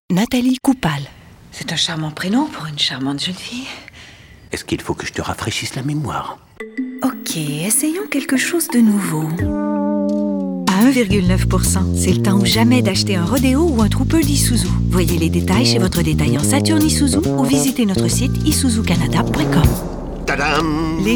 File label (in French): demo publicite